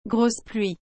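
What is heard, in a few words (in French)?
"grosse pluie", French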